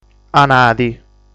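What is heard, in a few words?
"Anadi", Sardinian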